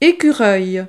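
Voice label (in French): "ecureuil", French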